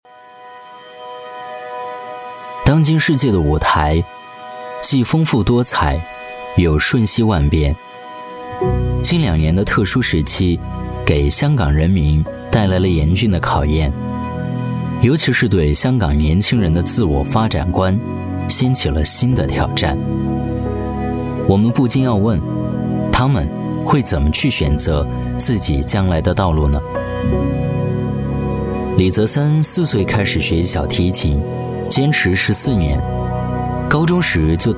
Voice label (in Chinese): 男, 纪录片, 舒缓质感, 声音百变 质感磁性